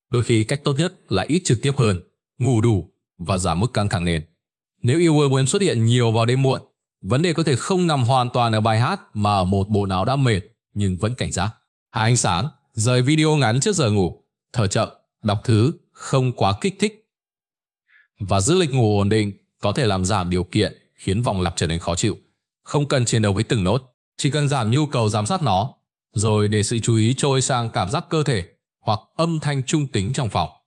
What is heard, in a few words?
narration